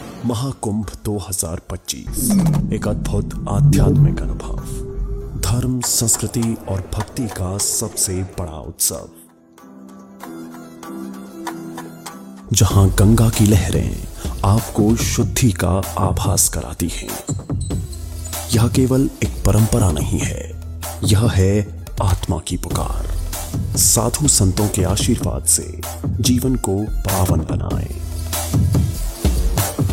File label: hindi deep; mahakumbh